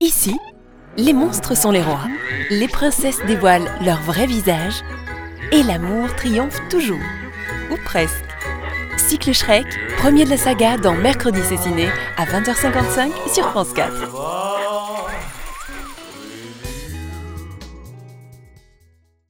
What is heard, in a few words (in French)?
enfant